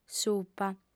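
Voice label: "supa", female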